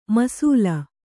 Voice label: "ಮೀಸಲು", Kannada

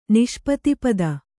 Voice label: "ನಿಷ್ಪತಿಪದ", Kannada

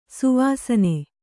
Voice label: "ಶವಾಸನ", Kannada

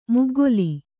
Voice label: "ಮುಗ್ಗಲು", Kannada

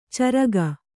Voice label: "ಚರಿಗೆ", Kannada

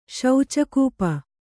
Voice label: "ಶೌಚಕೂಪ", Kannada; śauca kūpa; noun; a pit for excrement; a cess-pit.

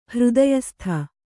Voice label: "ಹೃದಯಸ್ಥ", Kannada

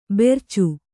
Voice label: "ಭರ್ಚಿ", Kannada

